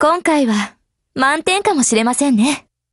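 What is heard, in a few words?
WIN Japanese, Voice; GF Alarm; DTASRS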